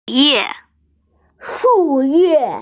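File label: "shu4ye4", Mandarin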